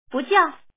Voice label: Woman, lord